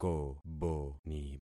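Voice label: "koboni", concatenated syllables; Affixing experiment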